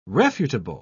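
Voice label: "refutable", British English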